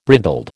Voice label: "brindled", American

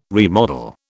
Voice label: "remodel", British

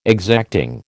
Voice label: "exacting", American

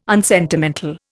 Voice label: "unsentimental", Indian

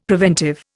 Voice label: "preventive", Indian; Dictionary

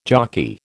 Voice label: "jockey", American

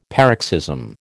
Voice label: "paroxysm", American

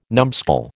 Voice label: "numbskull", American

